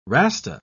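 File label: "rasta", British English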